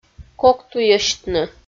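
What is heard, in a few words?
"коктуйӧсьтны", Komi, female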